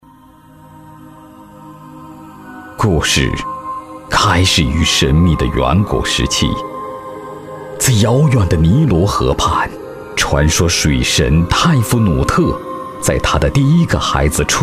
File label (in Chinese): 男 讲述风格; 故事开始于神秘的远古时期